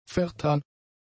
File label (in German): "vertan", German; adjectives